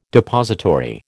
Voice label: "depository", American

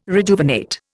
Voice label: "rejuvenate", Indian

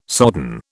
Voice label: "sodden", British

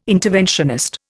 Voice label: "interventionist", Indian

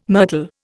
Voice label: "myrtle", Indian

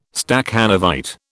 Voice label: "Stakhanovite", British

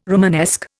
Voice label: "Romanesque", Indian

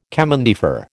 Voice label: "chemin de fer", American

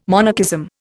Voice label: "monarchism", Indian

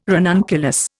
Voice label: "ranunculus", Indian

